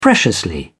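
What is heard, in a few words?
"preciously", British English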